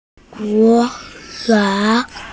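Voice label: "mo fang" Chinese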